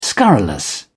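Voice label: "scurrilous", English, British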